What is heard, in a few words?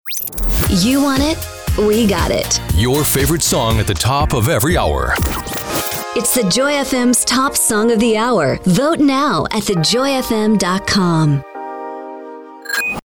Radio Imaging